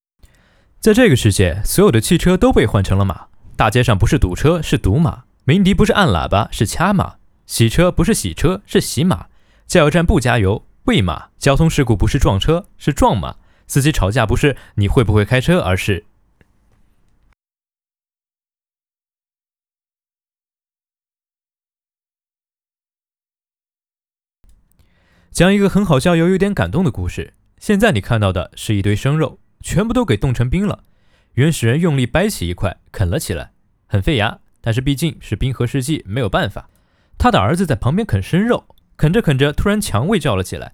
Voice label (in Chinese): 解说